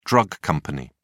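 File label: "drug company", British English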